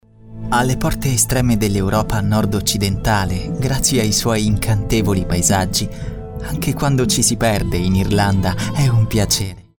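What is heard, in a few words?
Italian male voice over, narrative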